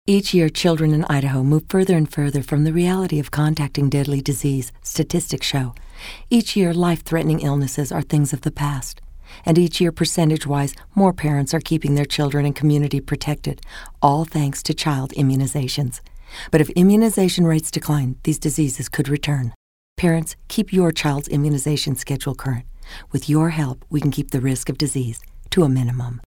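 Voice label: narration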